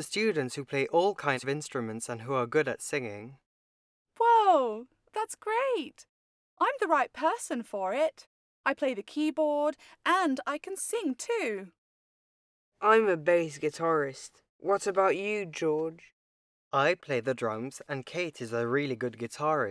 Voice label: Listen and read the conversation The music band; Unit 2 Vocabulary